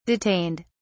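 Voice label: "detained", British English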